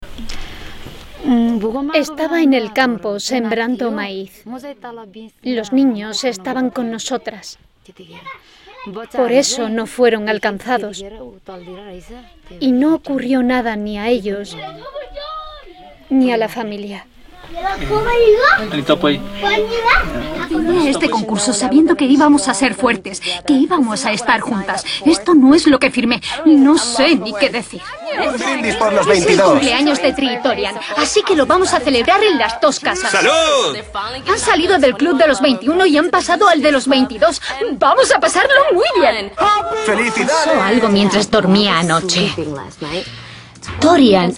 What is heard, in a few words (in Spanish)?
Docu Realitys